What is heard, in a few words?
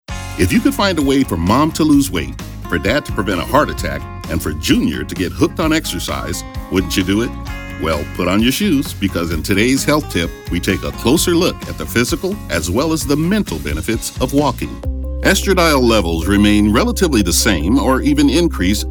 Narration